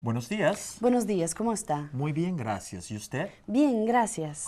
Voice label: greetings dialogue; novice